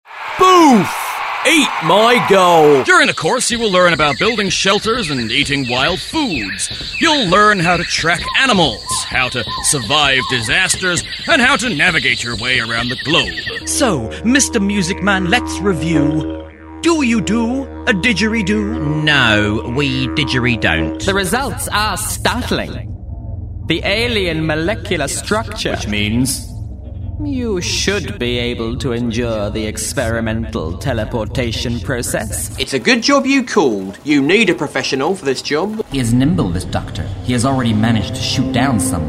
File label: Animation